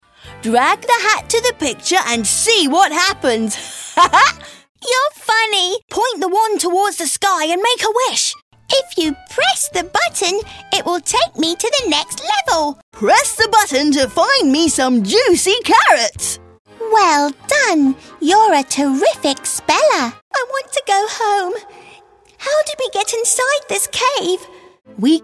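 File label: British English, toys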